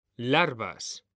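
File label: "larvas", Spanish, male; mekanta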